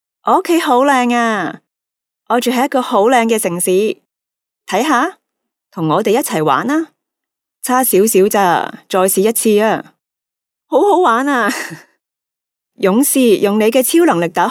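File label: Cantonese female children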